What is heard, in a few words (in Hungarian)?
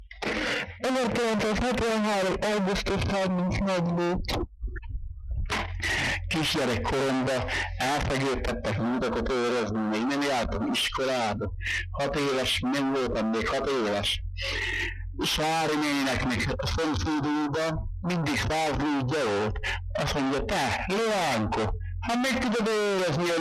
nyelvjaras gyujtesek